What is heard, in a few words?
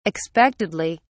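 "expectedly", British English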